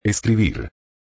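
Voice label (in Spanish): "escribir", español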